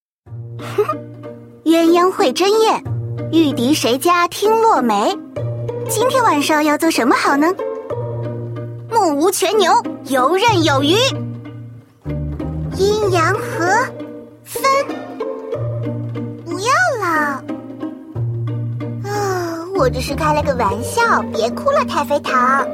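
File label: loli girl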